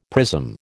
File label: "prism", American